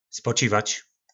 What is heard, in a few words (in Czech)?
"Spočivač", nářečí Po našimu; Odpočívat